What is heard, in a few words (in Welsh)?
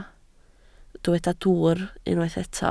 "dwwr", Welsh